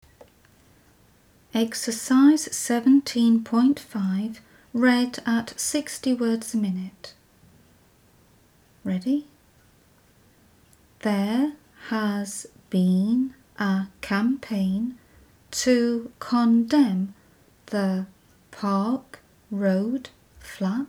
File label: 60wpm; Teeline